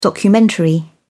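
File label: "documentary", British English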